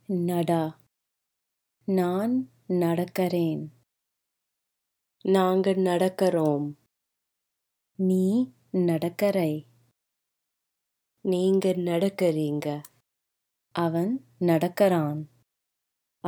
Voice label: Walk, Tamil